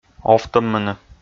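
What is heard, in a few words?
"овтӧммыны", Komi, male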